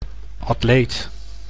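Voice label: "atleet", Dutch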